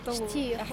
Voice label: woman in cafe